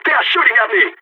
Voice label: radio taking fire, balkan; Counter-Strike Global Offensive